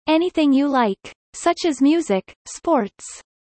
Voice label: fast; studying abroad